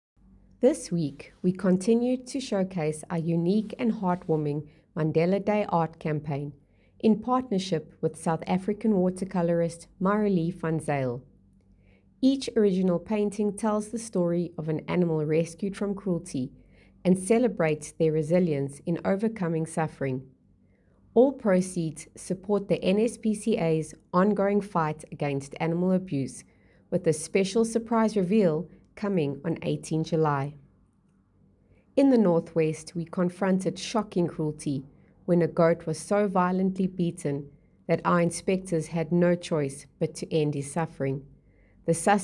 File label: voice note; NSPCA; Week in Review: 23 - 29 June 2025; Nelson Mandela’s legacy